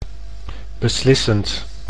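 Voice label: "beslissend", Dutch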